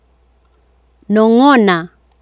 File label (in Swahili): "nong,ona" Swahili